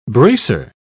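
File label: "bracer", English, British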